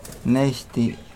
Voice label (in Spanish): Nawat palabras ceniza; pipil